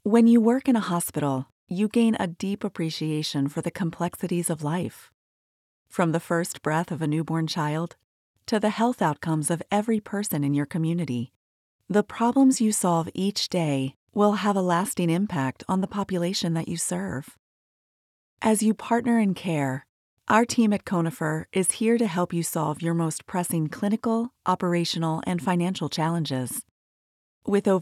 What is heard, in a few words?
Healthcare Industrial Video warm straightforward; Conifer